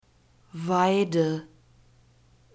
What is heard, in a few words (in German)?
"weide", German; nouns gender feminine